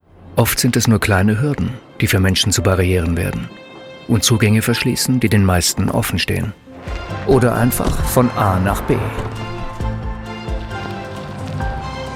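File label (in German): Spots OFF, Sprecher, Männer; kurz; Bayern Barrierefrei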